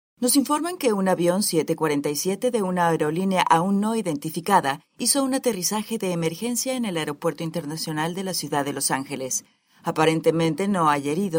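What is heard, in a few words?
Spanish Latin America female voice over, upbeat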